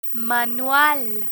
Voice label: "manual", Spanish